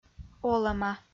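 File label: "олӧма" Komi-Zyrian, female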